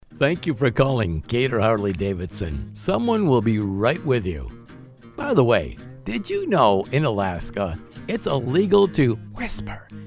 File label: on hold humor; GATOR HARLEY DAVIDSON